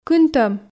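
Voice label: "кынтӧм", Komi, female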